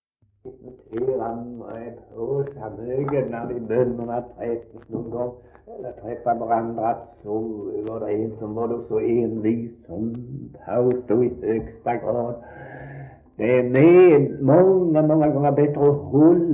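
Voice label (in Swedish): Svensköp Skåne, dialekter; Folkminnen; Svenska sagor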